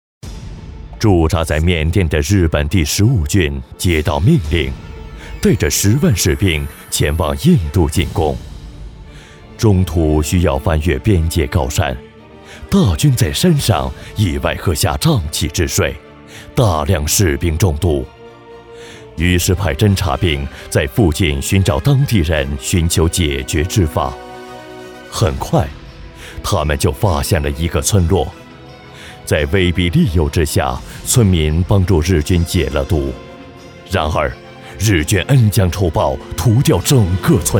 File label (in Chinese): 男, 悬疑科幻旁白